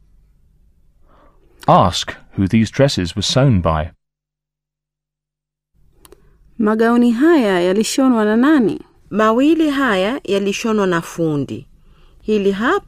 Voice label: Exercise, Swahili; Teach yourself Swahili audio